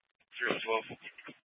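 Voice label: police dispatch, Scottsdale PD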